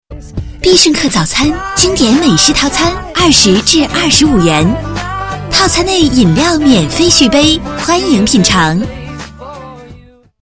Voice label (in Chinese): 女 广告; 必胜客